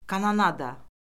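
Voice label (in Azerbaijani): "kanonada", Azerbaijani; Orfoqrafiya Lüğəti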